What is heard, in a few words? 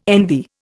"envy", Indian